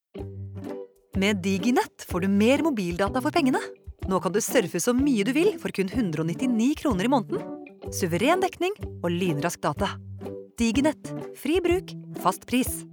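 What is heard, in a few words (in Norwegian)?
reklame, Norwegian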